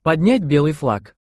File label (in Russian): поднять белый флаг (podnjat’ belyj flag), Russian